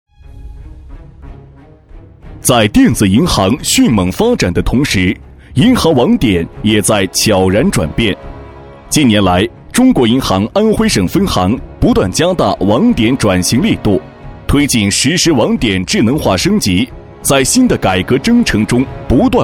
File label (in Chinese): 男 专题 银行 大气沉稳; 中国银行